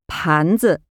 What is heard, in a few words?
"PLATE", Chinese (Mandarin); PAN ZI